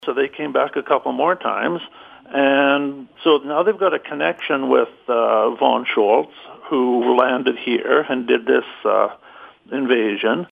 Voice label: Ghost Voice